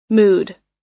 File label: "mood", us